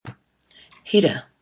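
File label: "hira", Maori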